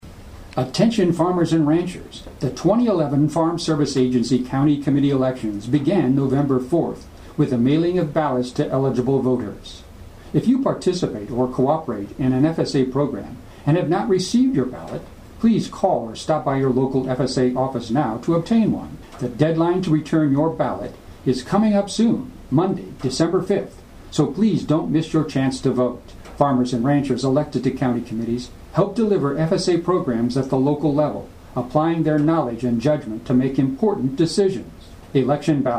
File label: english; FSA